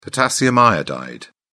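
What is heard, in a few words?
"potassium iodide", British English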